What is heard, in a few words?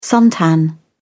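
"suntan", English, uk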